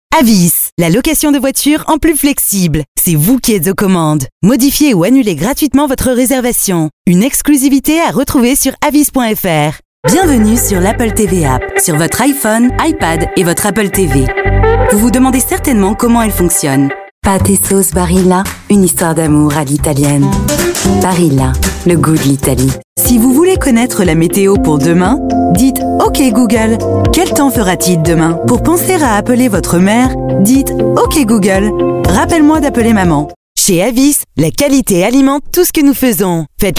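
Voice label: French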